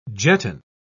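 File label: "jetton", British English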